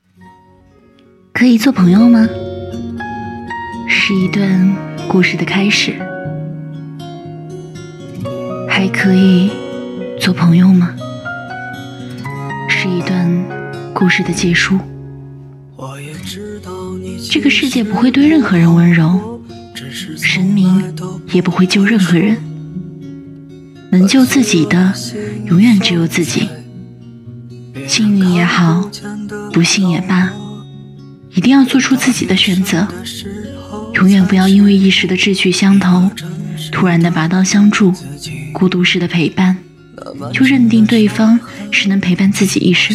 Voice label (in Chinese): 情感电台; 人间风月浅尝辄止余额不足